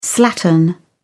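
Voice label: "slattern", British English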